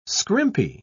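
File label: "scrimpy", British English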